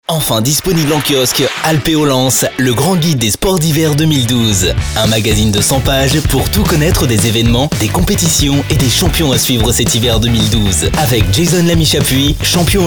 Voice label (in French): spot radio